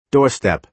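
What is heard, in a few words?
"doorstep", American English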